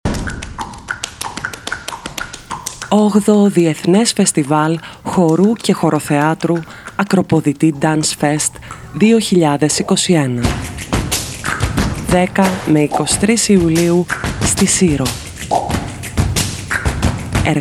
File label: radio spot; Akropoditi DanceFest 2021